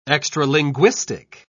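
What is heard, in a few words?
"extralinguistic", British English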